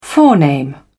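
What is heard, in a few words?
"forename", British English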